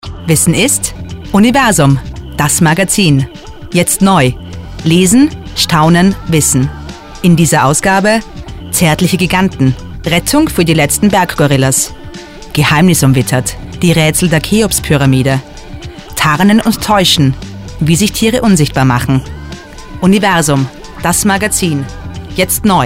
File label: Jingle; Universum